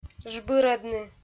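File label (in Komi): "жбырӧдны", Komi, female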